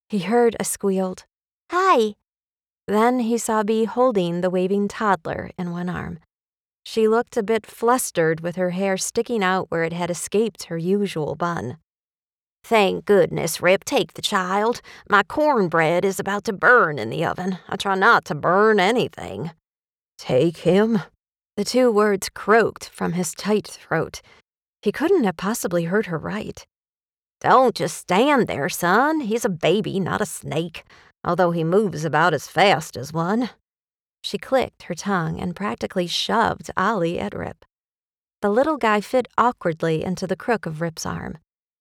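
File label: 3rd POV Cowboy American Western Accent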